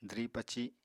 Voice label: "dwri-paci", Apatani; Household Items